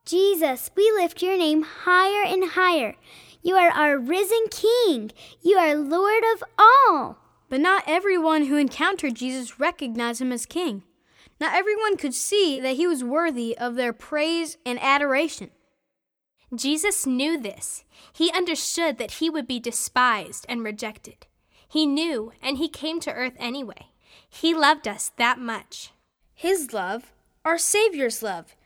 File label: Narration